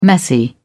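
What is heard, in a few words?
"messy", English, British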